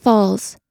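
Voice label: "falls", American English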